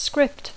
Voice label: "script", English; Dacco